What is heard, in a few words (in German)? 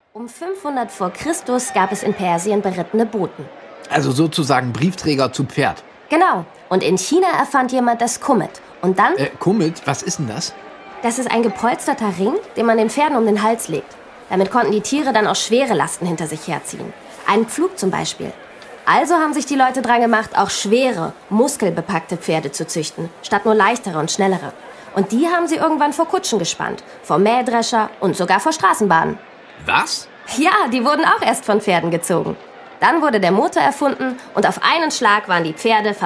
hoerspiel; geolino pferde